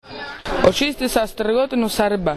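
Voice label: "strgotina", Croatian dialect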